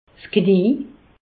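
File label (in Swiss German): "knie", Alsace, Haut Rhin; medecine; genou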